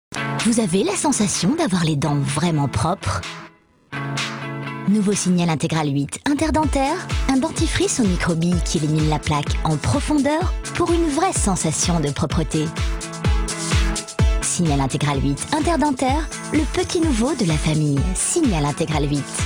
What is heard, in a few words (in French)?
Signal Integral Voix tonique pétillante